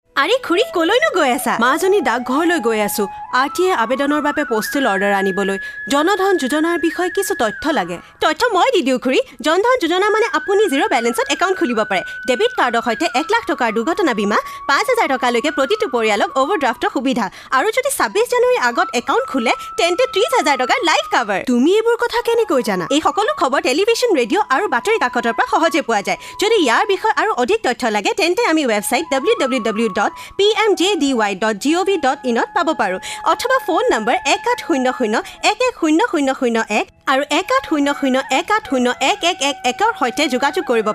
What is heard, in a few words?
Assamese